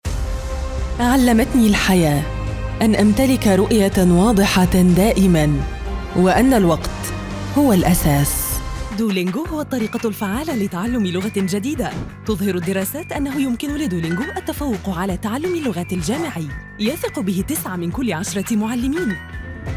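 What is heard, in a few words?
Modern Standard Arabic Commercial; MSA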